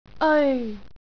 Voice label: "eoi", Cantonese